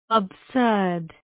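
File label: "absurd", Indian English, female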